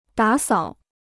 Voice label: "打扫", Chinese

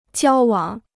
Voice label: "交往", Chinese

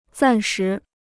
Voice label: "暂时", Chinese

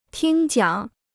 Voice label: "听讲", Chinese

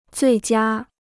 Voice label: "最佳", Chinese

